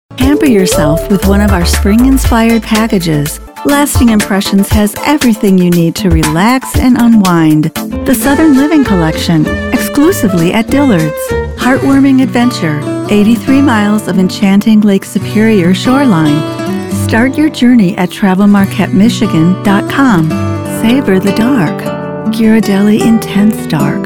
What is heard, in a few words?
commercial